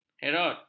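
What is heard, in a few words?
"herot", Malay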